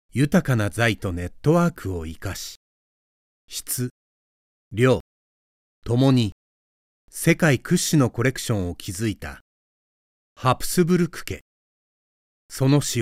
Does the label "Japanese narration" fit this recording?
Yes